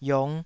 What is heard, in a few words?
"iong5", taiwanese syllable